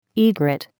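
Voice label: "egret", English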